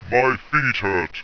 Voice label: "my feet hurt", rumbly, pain